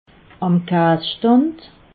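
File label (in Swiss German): "amkasstand", Alsatian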